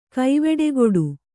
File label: "ಕವಡೆಗಟ್ಟು", kannada